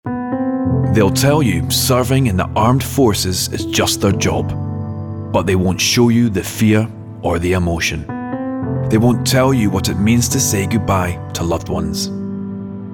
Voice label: VO Sombre, Gravitas, Husky; Poppy Appeal

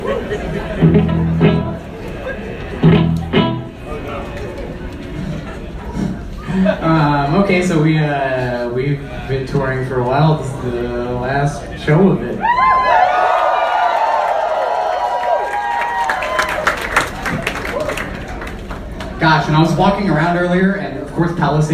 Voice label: Banter

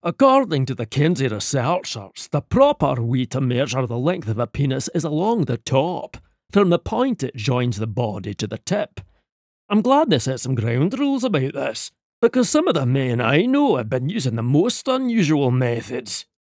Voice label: size glasgow; ACCENTS & DIALECTS

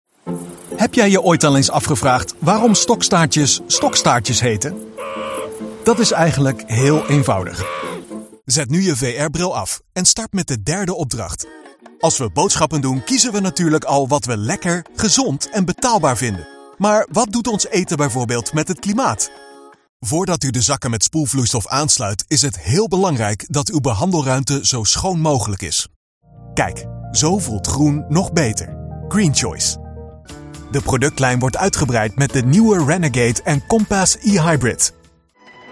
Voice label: voice demo, NL

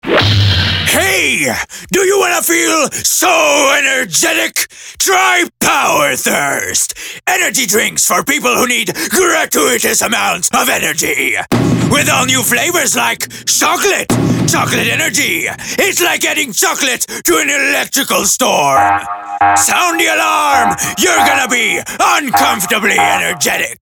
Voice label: Character Voice

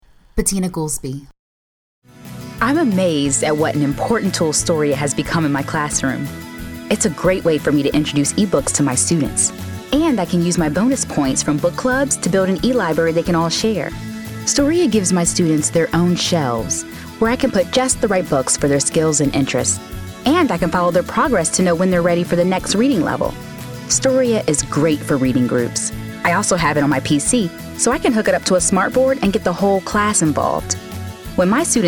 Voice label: voiceover reel

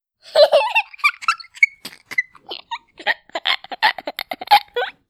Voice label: Australia

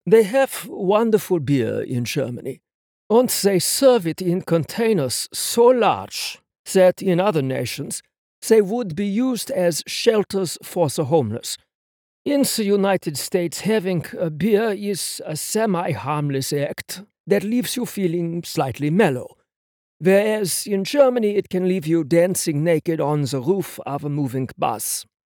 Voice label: german; ACCENTS & DIALECTS

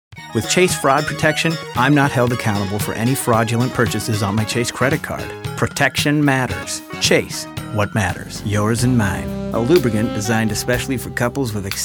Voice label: Commercial

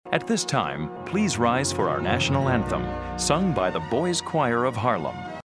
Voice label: Warm and welcoming

